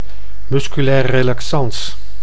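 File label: "musculair relaxans", Dutch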